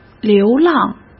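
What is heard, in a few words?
"流浪", Mandarin Chinese; hsk; chinese-flashcards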